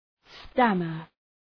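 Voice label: "stammer", English; {‘stæmər}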